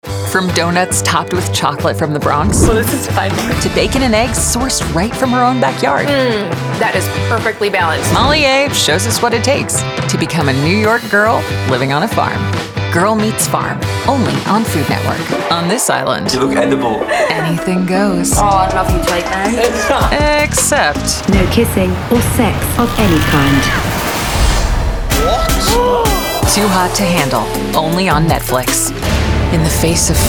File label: Promo, female voices